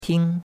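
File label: "ting1", Mandarin Chinese; Cours de Chinois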